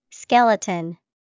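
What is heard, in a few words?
"skeleton", English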